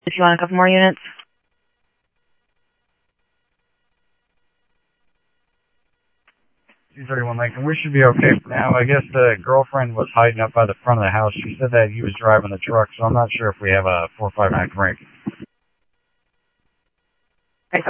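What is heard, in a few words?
police department, scanner audio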